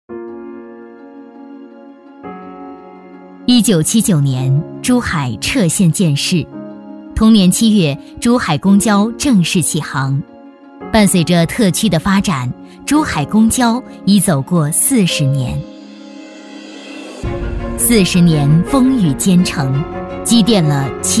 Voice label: 专题 企业 公交集团 沉稳; 珠海公交集团

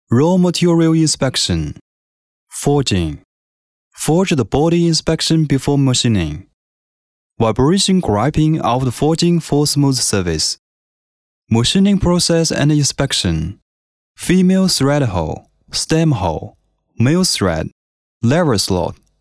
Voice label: 男英 专题 产品 产品介绍讲解叙述; 英语

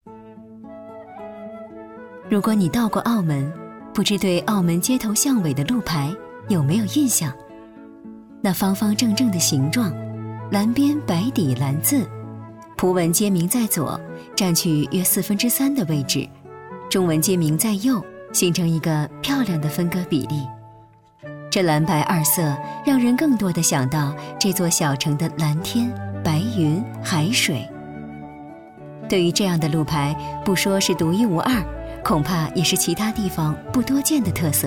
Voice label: 纪录片 人文历史 旅游城市介绍; 澳门

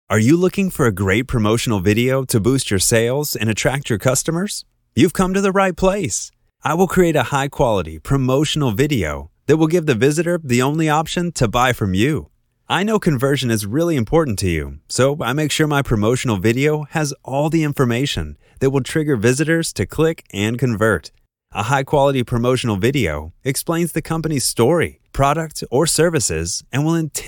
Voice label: 美式英语 宣传类 磁性, 外籍男英; 外语

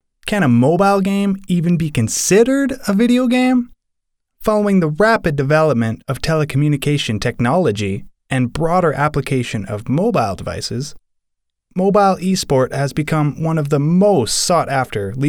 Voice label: 外籍男英 外语 美式英语; 飞碟说

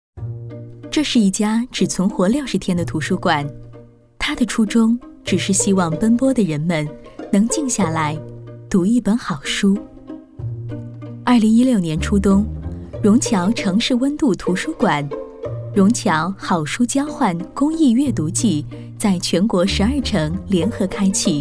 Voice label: 讲述; 图书馆

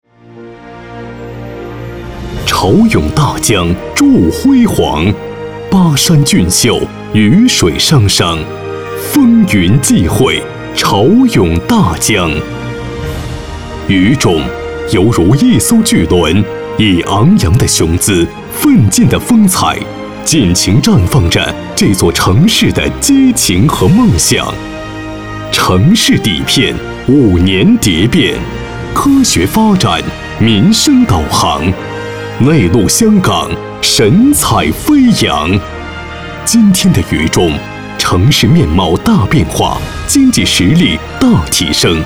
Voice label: 男 宣传片 大气; 城市; 重庆名片

